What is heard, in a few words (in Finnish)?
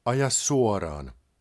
Straight, Finnish male; Opas